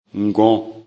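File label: "ngon", Bambara; animaux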